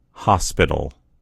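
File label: "hospital", American English; gcompris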